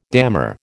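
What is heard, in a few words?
"dammar", American English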